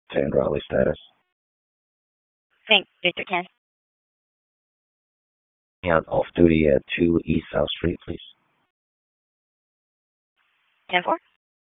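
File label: Raleigh Police Southeast District Dispatch, p25; wake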